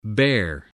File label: "bare", English